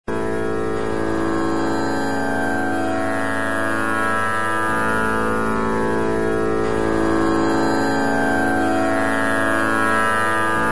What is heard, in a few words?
pitch a male